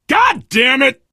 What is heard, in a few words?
swear, player survivor voice biker